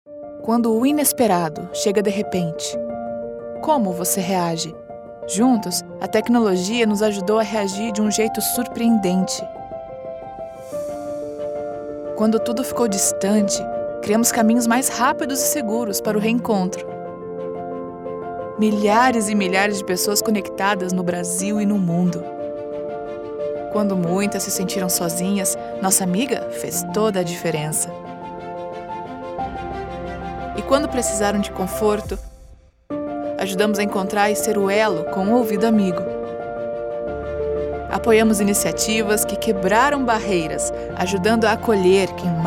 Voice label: corporate inspirational truthful female brazilian portuguese; voiceover; microsoft